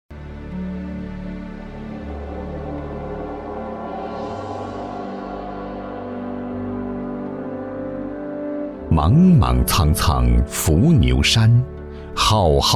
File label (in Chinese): 男 纪录片 大气 悠扬 流畅 城市宣传; 襄城